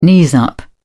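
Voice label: "knees up", English, British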